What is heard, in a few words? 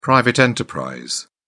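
"private enterprise", British English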